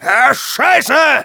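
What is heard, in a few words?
negative vocalization, German; Demoman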